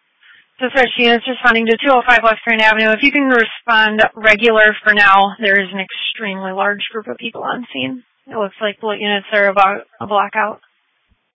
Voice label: Sheriff Dispatch